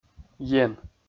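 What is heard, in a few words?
"ен", Komi, male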